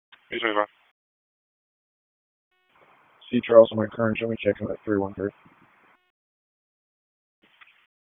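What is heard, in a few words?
Police Northeast District Dispatch, p25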